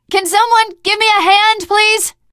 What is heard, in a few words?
ledge hang start, teengirl; source engine; left 4 dead 2; survivor